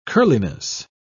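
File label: "curliness", British English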